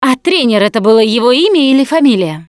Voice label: Survivor Mourn, russian; Voice; Producer; Coach

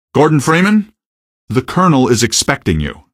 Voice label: citizen greet, male; Garry's Mod; hl2; odessa

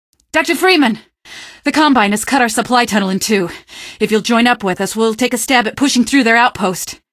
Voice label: tunnel greet, male; Garry's Mod; hl2; Streetwar

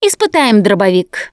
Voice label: take shotgun, russian, Survivor Voice Producer

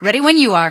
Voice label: ready when you are, female; npc; Garry's Mod; hl2

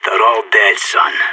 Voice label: no enemies left, player vo sas

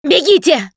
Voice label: yell run, Survivor Voice; russian; Producer

